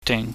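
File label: "ding", Cantonese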